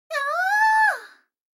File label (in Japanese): 女の子 台詞, ASMR